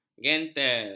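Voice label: "gentel", Malay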